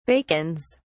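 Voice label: "bacons", British English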